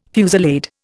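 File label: "fusillade", Indian English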